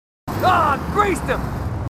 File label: marines, aussie; h2dialogue